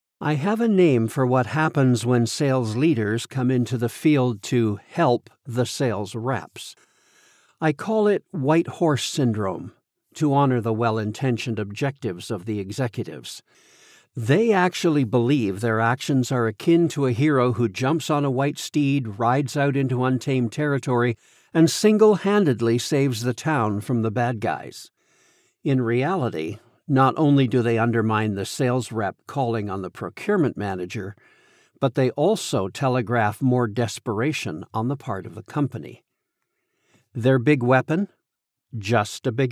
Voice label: Nonfiction Business 1st POV